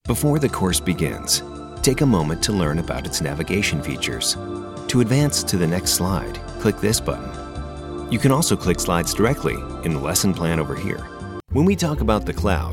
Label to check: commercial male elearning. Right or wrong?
Right